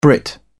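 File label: "brit", British English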